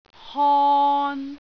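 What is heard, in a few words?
"hon3", Cantonese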